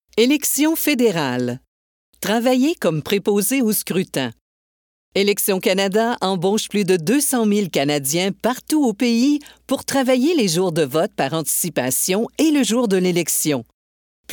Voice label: french canadian political ads